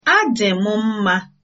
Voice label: fine, igbo